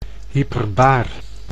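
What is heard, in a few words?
"hyperbaar", Dutch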